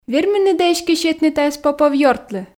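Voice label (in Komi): верминныд-ӧ эськӧ сетны тайӧс попов ёртлы, Komi, female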